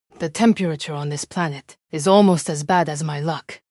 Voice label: upset; fertig classic games